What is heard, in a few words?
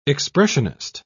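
"expressionist", British English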